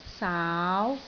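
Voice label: "saau2", Cantonese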